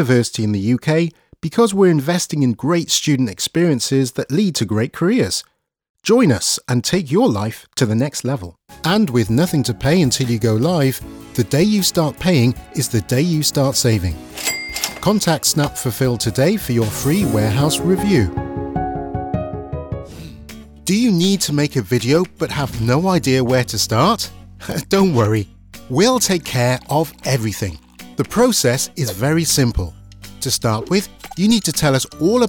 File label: commercial explainer